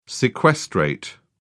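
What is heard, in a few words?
"sequestrate", British English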